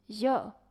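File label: "chinese", English word; kham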